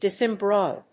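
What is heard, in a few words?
"disembroil", English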